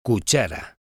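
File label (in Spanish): "cuchara", español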